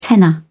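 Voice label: "tenner", British English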